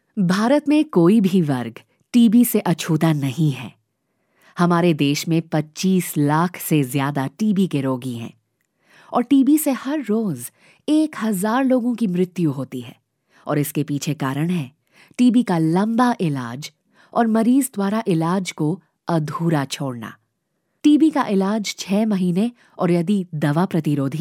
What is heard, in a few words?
female hindi voice over narrative